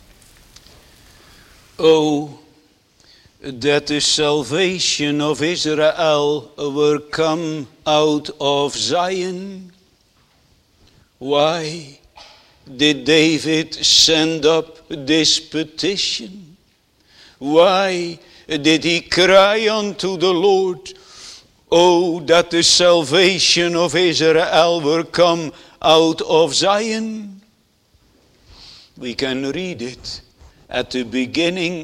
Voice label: Sermon